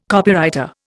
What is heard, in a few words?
"Copywriter", Indian